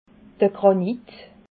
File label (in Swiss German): "granit", alsace